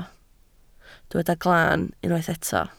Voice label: "glan", Welsh